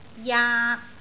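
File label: "jaak3", Cantonese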